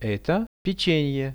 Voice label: eto pechenie, Russian; products